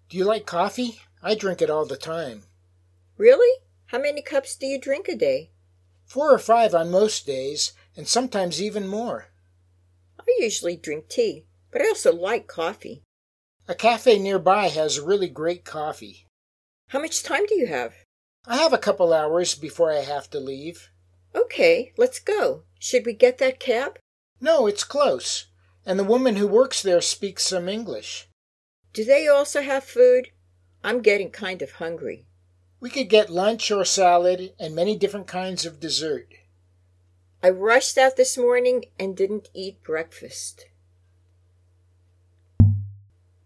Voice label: Natural Dialog Complete